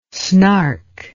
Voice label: "snark", English